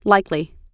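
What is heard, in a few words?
"likely", US English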